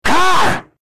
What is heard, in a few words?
car shout